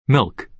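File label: "milk", American English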